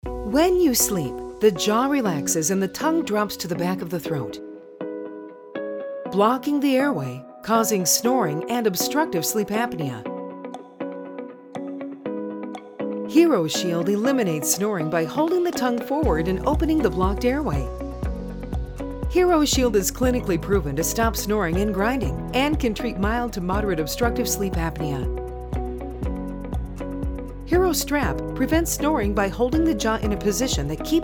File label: Explainer Video; HeroShield